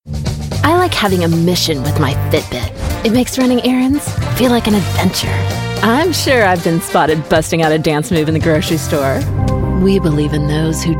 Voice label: Commercial